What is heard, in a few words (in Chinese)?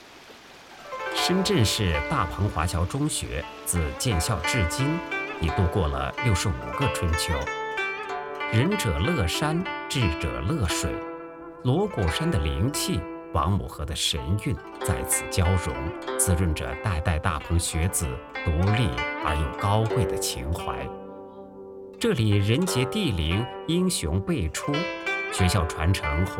旁白试音